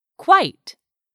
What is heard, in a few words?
"quite", English; 3000 words